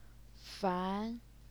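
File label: "fan", second tone, Chinese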